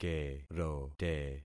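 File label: "kerote", concatenated syllables; Affixing experiment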